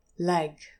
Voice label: "leg", English pronunciation

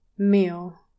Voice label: "meal", English

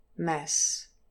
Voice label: "mess", English pronunciation

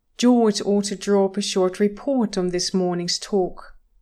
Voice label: George ought, english pronunciation; George ought to draw up a short report on this morning's talk.

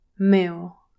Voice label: "mill", english pronunciation

